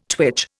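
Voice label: "twitch", Indian English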